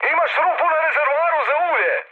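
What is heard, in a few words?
Serbian damaged oil mood high; speech; War Thunder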